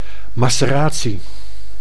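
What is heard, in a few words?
"maceratie", Dutch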